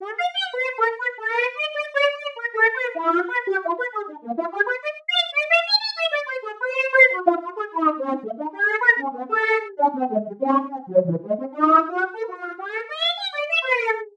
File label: random formant talking